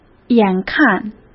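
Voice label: "眼看", Mandarin Chinese; chinese-flashcards; hsk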